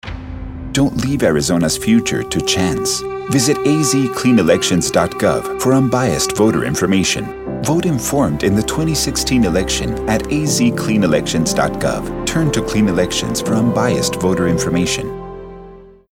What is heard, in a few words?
Political Clean Election English